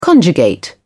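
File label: "conjugate", British English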